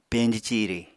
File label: "peñji ciri", Apatani